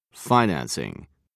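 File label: "financing", British English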